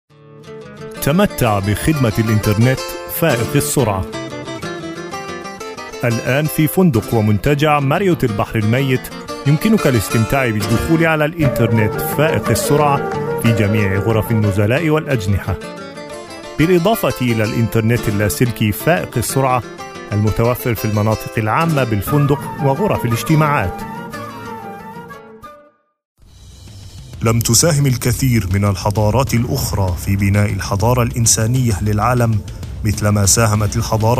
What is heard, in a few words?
arabic demo reel